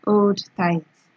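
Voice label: "hold tight", Nigerian Pidgin, female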